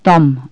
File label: volume, Bulgarian Consonants